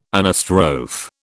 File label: "anastrophe", British